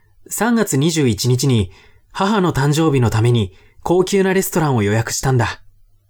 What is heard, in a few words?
man